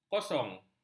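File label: "kosong", Malay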